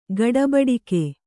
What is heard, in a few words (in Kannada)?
"ಗಡೆಬಡಿಗ", Kannada